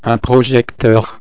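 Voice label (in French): "projecteur", French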